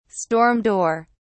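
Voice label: "storm door", English, British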